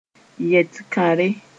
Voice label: "yet-kãre", Mooré

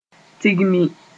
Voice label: "tigmi", Moore; gather together

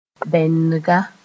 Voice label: "bɛndga", Mooré; underwear (traditional)loincloth (for women)

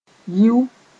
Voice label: "yiu", moore

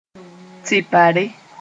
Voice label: "tipare", Moore; chilli pepper

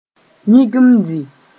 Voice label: "yĩgimdi", Mooré

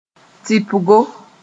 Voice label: "tɩpgo", moore

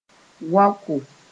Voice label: "waoko", Mooré

